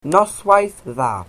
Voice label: "good evening", Welsh